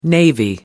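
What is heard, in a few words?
"navy blue", British English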